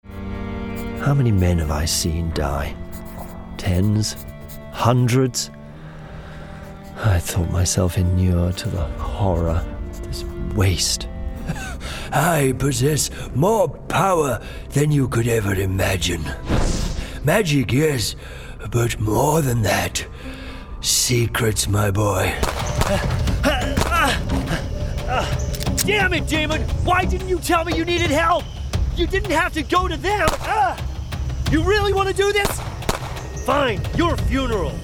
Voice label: male british voiceover gaming